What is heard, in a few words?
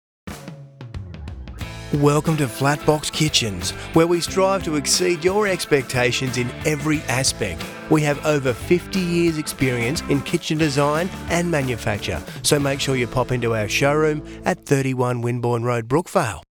on hold